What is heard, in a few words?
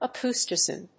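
"acoustician", English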